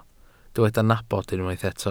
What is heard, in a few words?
"nabod", Welsh